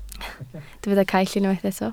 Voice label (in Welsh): "caill", Welsh